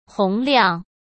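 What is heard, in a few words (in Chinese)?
"洪亮", Mandarin Chinese; chinese flashcards; hsk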